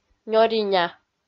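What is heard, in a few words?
"нёриня", Komi, female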